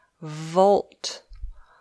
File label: "volt", English